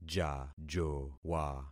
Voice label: "jajowa", concat syllables; Affixing experiment